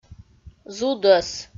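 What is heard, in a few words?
"зудӧс", Komi, female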